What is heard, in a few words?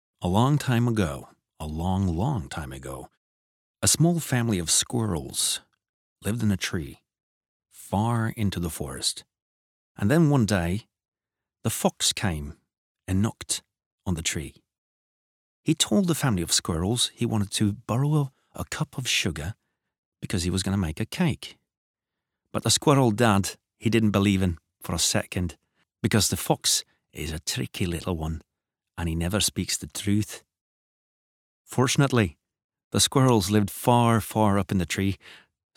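Norwegian children's book engelsk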